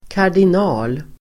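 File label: "kardinal", Swedish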